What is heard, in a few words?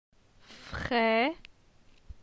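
"Fre", French; phonetique; la syllabe e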